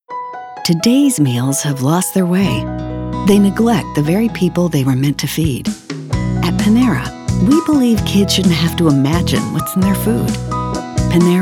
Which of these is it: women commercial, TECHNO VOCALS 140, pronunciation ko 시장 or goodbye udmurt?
women commercial